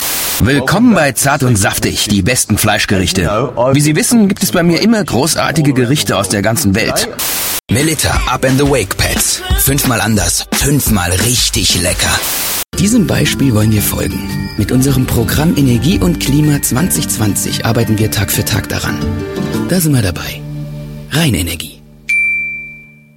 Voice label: de trailer, deutsch maenner; sprecher

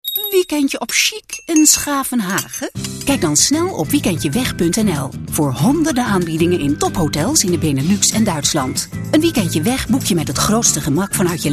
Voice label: nl werbung, niederlaendisch; sprecher

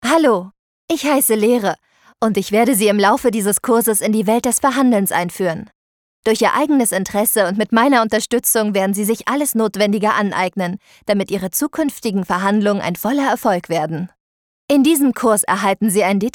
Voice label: elearning, deutsch frauen

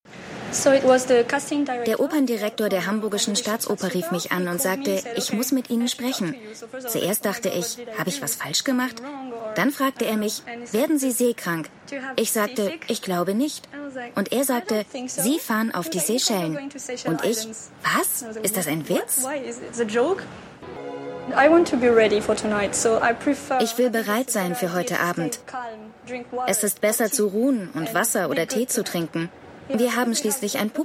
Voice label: voiceover, deutsch frauen; sprecher

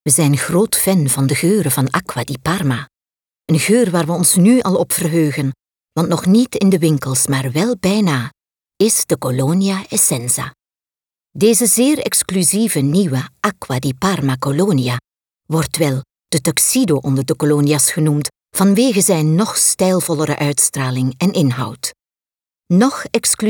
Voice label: flaemisch werbung; sprecher

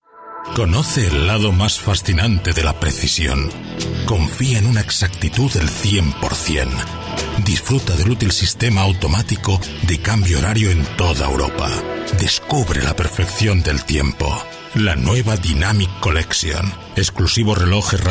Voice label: werbung, spanisch

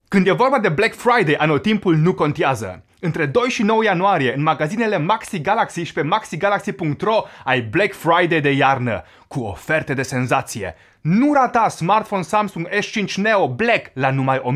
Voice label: werbung, rumaenisch

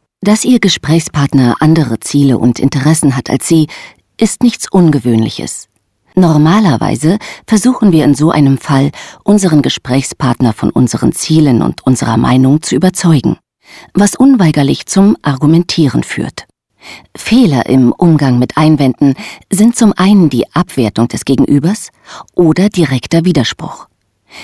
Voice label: deutsch frauen sachtext; sprecher

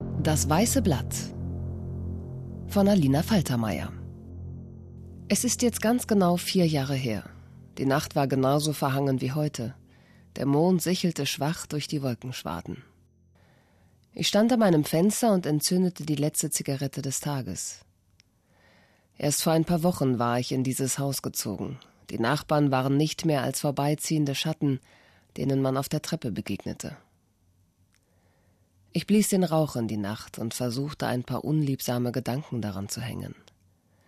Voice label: lesung, deutsch frauen; sprecher

